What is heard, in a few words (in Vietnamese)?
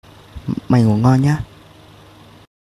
Doan ghi am chuc ngu ngon nhe giong con trai